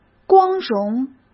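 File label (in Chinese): "光荣", Mandarin Chinese; hsk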